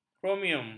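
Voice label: "kromium", Malay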